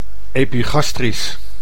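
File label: "epigastrisch", Dutch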